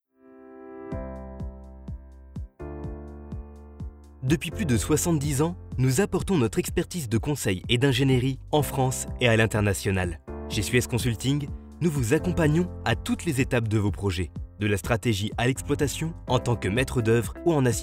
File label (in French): voix off homme fr; Studio Com'un Son; SPOTS RADIOS